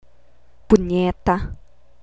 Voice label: "punyeta", Tagalog